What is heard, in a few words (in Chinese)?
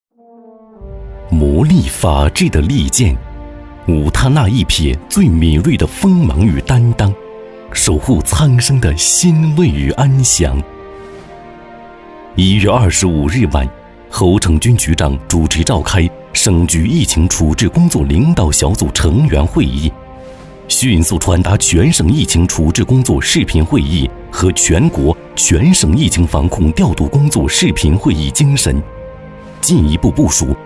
男 专题 公安法制; 利剑守护